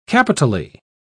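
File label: "capitally", English, British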